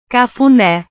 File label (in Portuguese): "cafune", portugues